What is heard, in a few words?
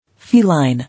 "Feline", English